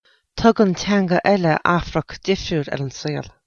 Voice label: irish